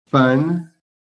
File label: "FUN", PHONETIC